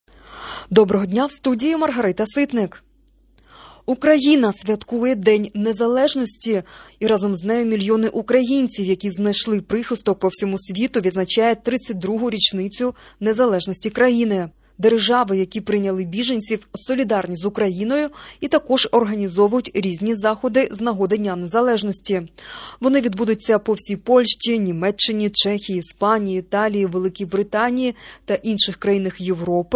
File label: Ukrainian Morning News